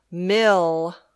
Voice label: "mill", English